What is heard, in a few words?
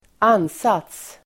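"ansats", Swedish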